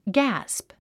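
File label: "gasp", English word; 発音 ɡǽsp ギャァスプ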